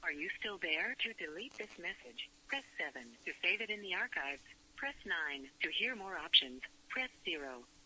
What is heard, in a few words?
are you still there voicemail, FEMALE SPOKEN WORD; FEMALE MISC